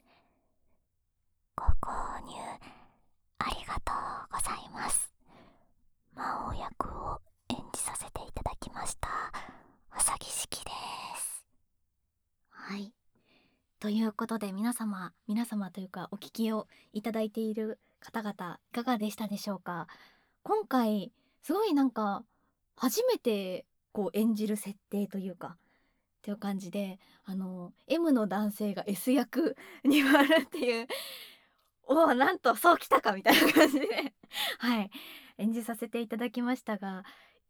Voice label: フリートーク

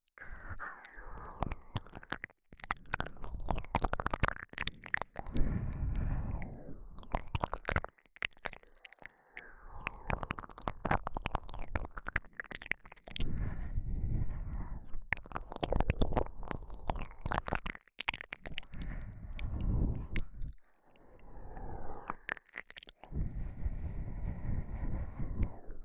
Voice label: 黒耳 右耳 10分に1回好き, ASMR

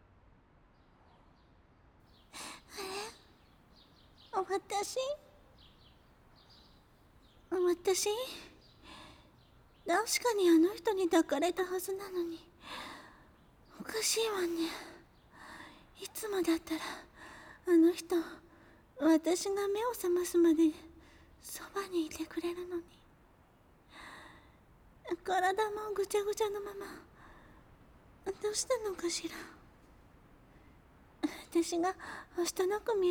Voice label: いやあああああ！！！見ないでえええええええ！！！; 【絶望の再開】

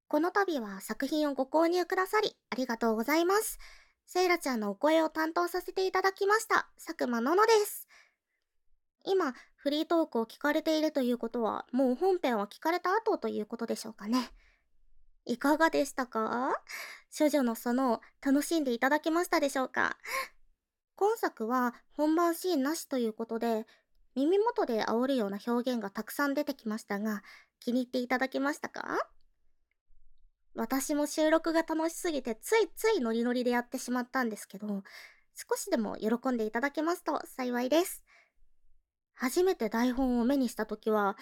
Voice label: フリートーク

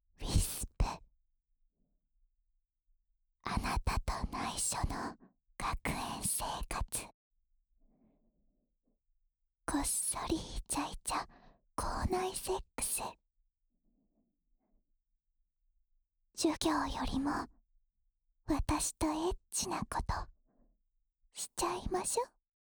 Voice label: タイトルコール

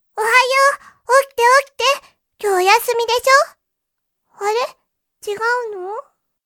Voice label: 金曜 娘, おはよー

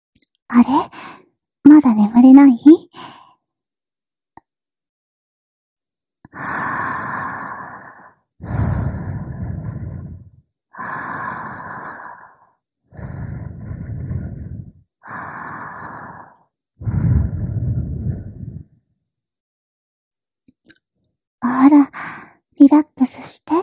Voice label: 添い寝

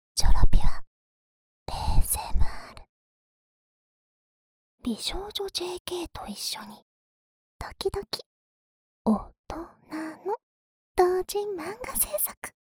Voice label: タイトルコール

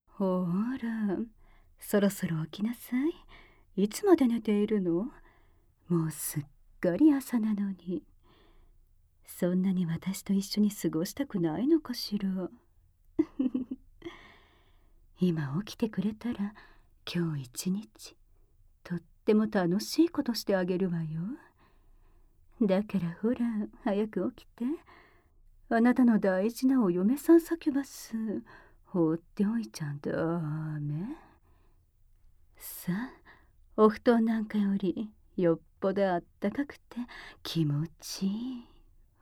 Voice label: 目覚ましアラーム音声; バニーサキュバス・ドラキュリアのえっちな…目覚ましアラーム音声♪

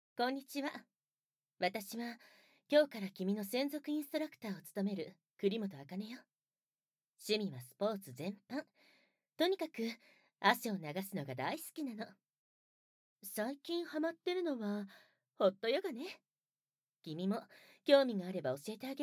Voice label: 自己紹介, ASMR; 効果音なし

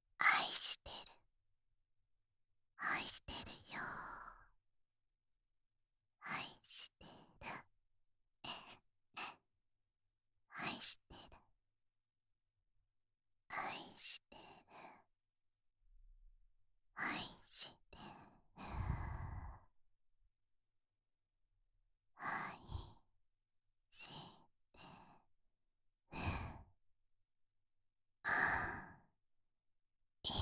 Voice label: 囁き淫語連呼; SEなし

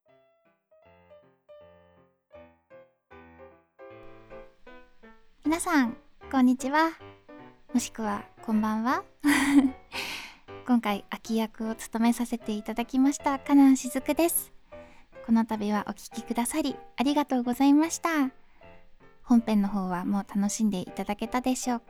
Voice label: フリートーク; ママ役

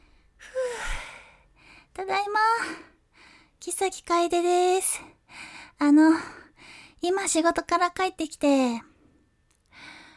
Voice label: 自己紹介, ASMR

